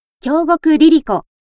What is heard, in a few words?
free talk